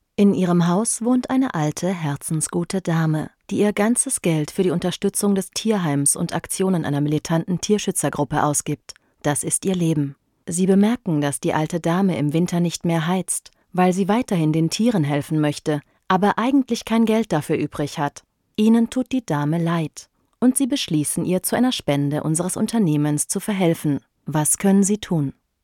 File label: elearning german